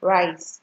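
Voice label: "rice", Nigerian Pidgin, female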